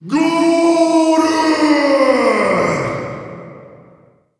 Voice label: scored voice